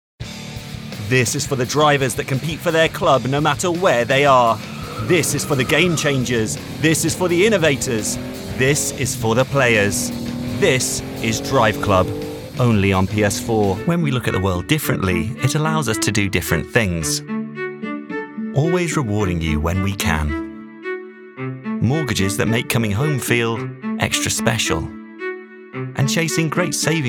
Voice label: Commercial